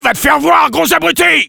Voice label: sniper taunts, fr